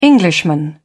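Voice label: "englishman", British English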